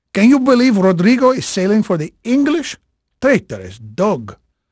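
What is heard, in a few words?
Portuguese male, voice; Pirates Of The Caribbean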